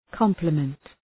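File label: "compliment", English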